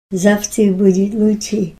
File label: tomorrow will be better, russian